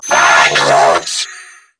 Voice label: Attack Grineer Female; New War; Narmer

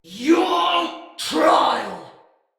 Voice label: Attack, Male; Barks Battle; Ode Tech; Sinecure Banneret

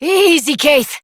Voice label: Horse Stop Adult; Duviri

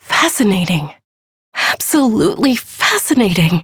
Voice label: Ambient Female, Dialog; Duviri

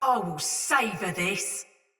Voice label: Attack Takeover Soldier Mace Agent Female; Battle; Sinecure Melee

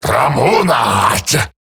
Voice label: Attack Male; en; Dialog; Barks; Battle; Grineer; Eximus

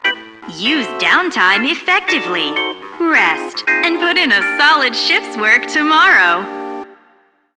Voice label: PA System, en; Warframe; Solaris Venus

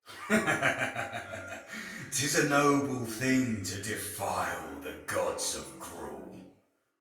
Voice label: Patrol Spoken Soldier Male; Battle; Barks; Dialog; Sinecure Soldier Male B

